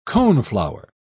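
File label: "coneflower", British English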